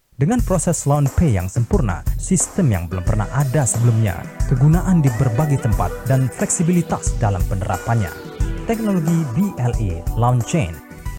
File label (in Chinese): 印尼语 男声